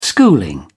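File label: "schooling", British English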